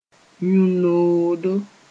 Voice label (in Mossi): "yũ-noodo", moore